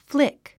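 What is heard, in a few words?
"flick", English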